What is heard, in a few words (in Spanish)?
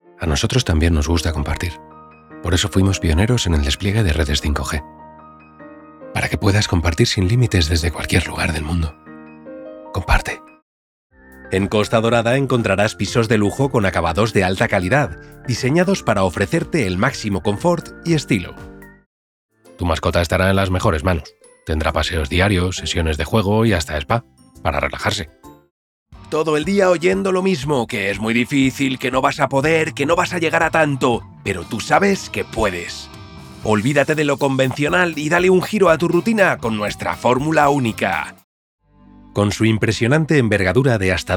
Publicidad